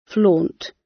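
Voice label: "flaunt", British English; Time, history; Thời gian, lịch sử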